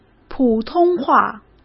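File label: "普通话", Mandarin Chinese; chinese-flashcards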